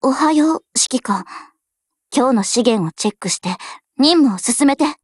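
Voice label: HELLO Japanese, Voice; LWMMGMod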